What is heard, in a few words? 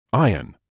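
"iron", British English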